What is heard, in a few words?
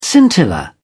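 "scintilla", British English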